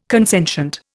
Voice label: "consentient", Indian

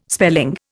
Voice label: "spelling", Indian

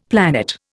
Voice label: "planet", Indian; Dictionary

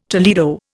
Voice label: "Toledo", Indian

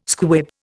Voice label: "squib", Indian

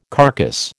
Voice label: "carcass", American

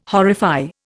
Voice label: "horrify", Indian

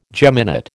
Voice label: "geminate", American

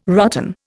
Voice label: "rotten", Indian

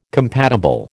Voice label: "compatible", American

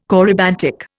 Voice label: "corybantic", Indian

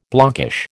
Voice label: "blockish", American

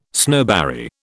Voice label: "snowberry", British

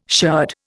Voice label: "sherd", Indian

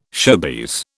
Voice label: "showbiz", British

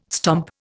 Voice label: "stomp", Indian

